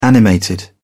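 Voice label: "animated", British English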